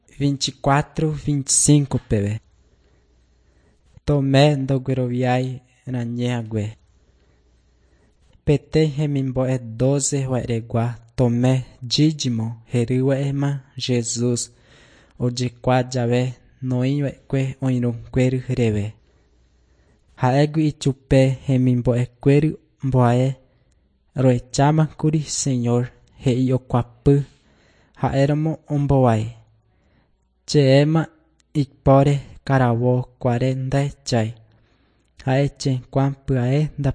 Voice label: john guarani mbya, bible

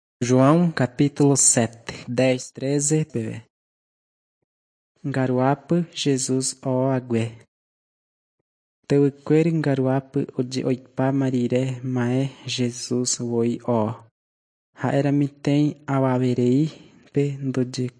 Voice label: john guarani mbya, bible

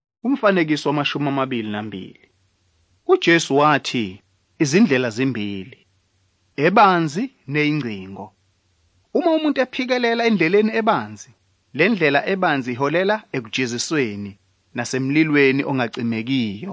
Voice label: zulu bible; good news

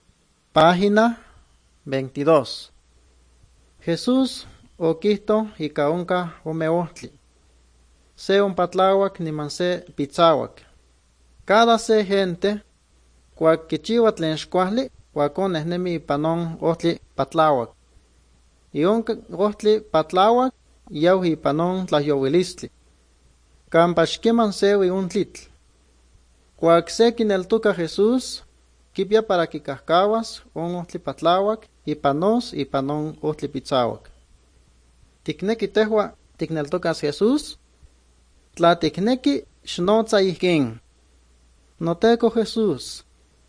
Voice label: good news, nahuatl guerrero chilapa; bible; guerrero nahuatl the bible league nt drama

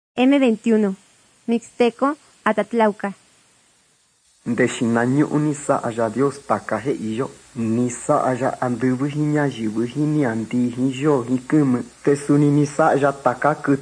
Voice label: mixtec diagnostic spanish mexico

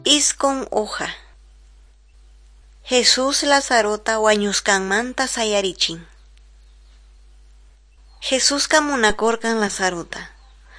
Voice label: quechua norte de bolivia apolo

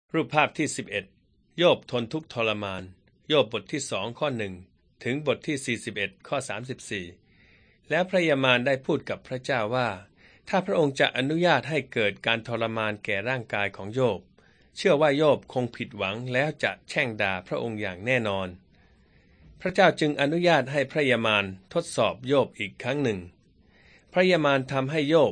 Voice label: beginning with god thai central

